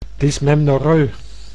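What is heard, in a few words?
"dysmennorroe", Dutch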